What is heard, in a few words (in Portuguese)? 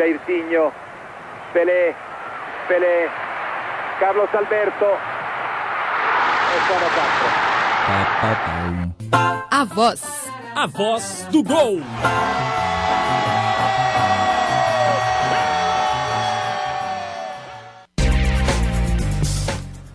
LOCUTOR ITALIANO NARRA GOL; GOL DE CARLOS ALBERTO TORRES DA COPA DE 1970